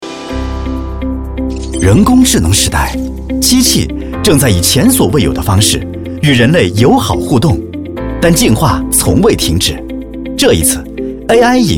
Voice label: 科技感自然宣传片; 数字人

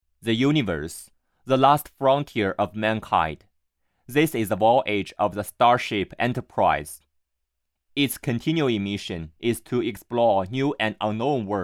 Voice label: 英文男配

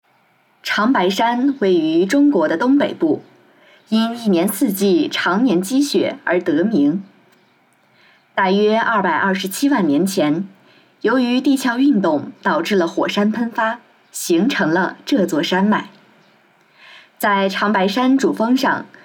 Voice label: 纪录片

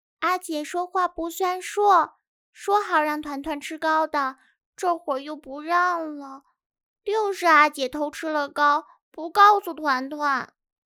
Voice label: 幼女音

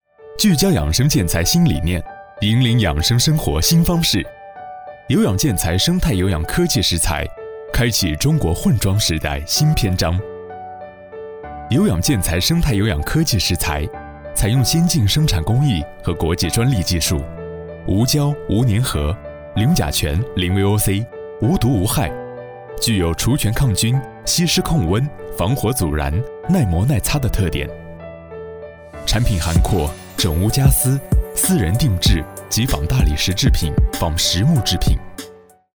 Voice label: 产品介绍 好听的产品介绍男声

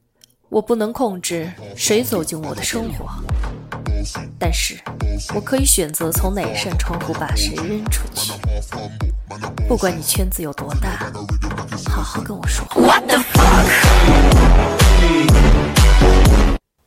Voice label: 御姐音

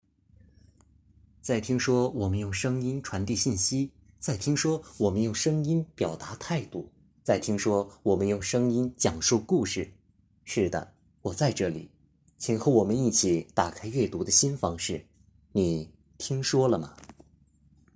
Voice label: 讲述类

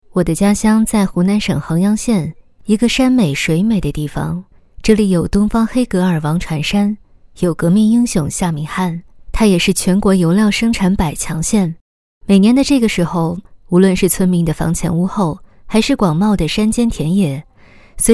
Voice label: 女; 衡阳县

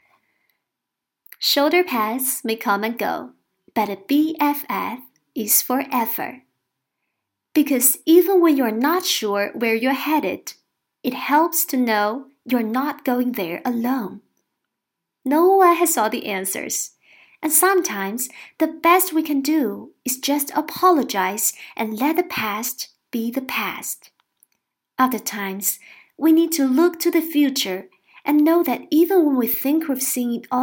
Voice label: 独白英语美音

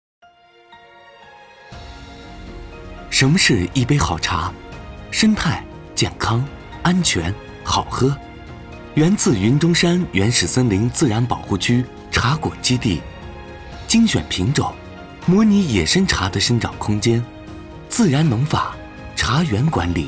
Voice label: 带音乐; 新文案茶

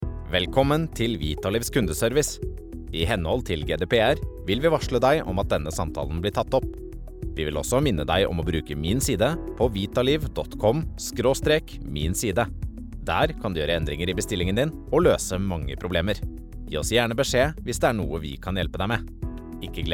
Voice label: 挪威语男

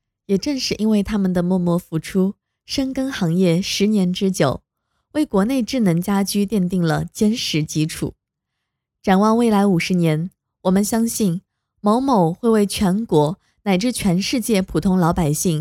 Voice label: 广告类

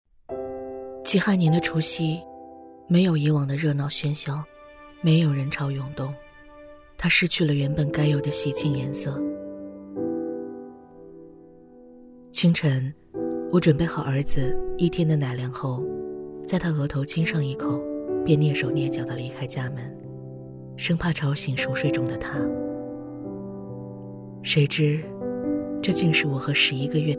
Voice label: 中文旁白电影旁白

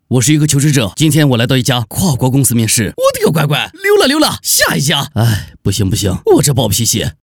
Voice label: 模仿小陕西

